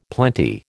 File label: "plenty", American